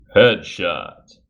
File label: headshot, announcer